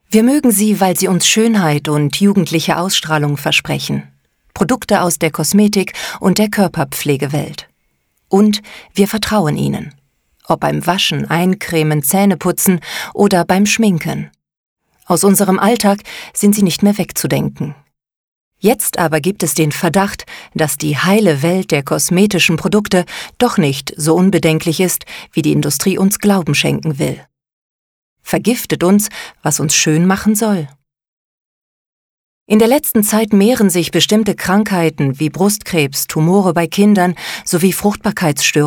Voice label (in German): sprechprobe, sprecherin; hormone im bad